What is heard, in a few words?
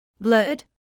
"blurred", medical english